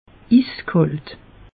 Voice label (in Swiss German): "is kalt", alsace; meteo